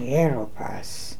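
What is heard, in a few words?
"Heropass", pronunciations; Fourth Way; Beelzebub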